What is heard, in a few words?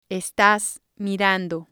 "estas mirando", spanish